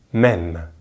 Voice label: "même", French; Consonantes en francés; easypronunciation